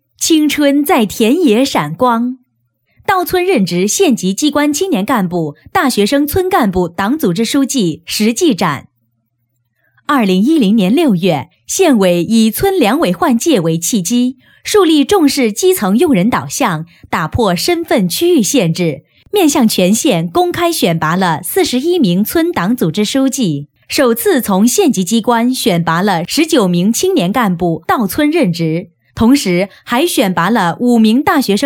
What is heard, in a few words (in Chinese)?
女, 专题; 青春在田野闪光